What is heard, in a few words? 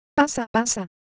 Japanese Texture, Ideo Phones